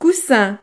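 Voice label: "coussin", French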